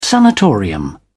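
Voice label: "sanatorium", British English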